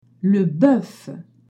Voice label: "boeuf", French